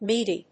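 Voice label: "midi", English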